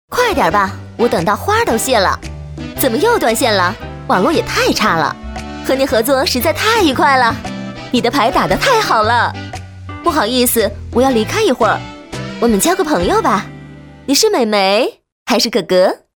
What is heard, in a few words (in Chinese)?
动画 游戏 棋牌语音, 女